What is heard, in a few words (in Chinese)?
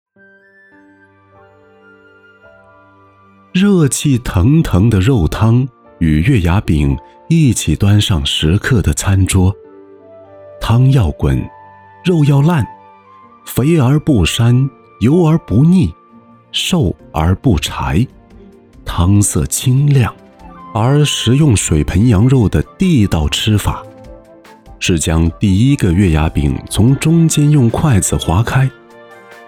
男 纪录片 舌尖风格; 水盆羊肉汤